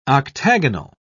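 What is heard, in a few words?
"octagonal", English, British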